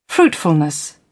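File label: "fruitfulness", British English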